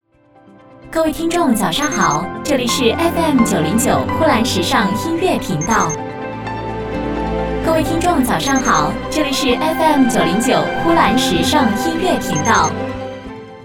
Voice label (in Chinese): 女 台呼 音乐频道